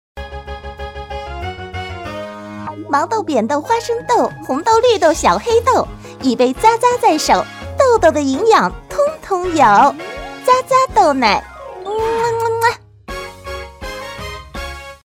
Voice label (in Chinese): 豆奶广告